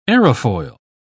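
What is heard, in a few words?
"aerofoil", British English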